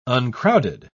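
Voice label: "uncrowded", British English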